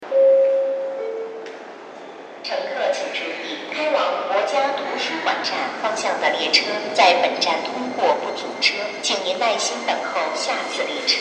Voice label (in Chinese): 9号线快车通过广播